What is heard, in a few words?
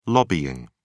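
"lobbying", English, British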